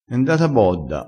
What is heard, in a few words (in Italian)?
"e ndata bodda", crespinese, vocabolario dialettale